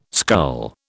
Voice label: "scull", British

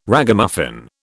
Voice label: "Ragamuffin", British

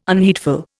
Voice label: "unheedful", Indian

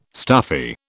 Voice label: "stuffy", British

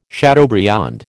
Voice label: "chateaubriand", American

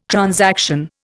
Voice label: "transaction", Indian

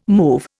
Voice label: "move", Indian

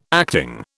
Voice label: "acting", British

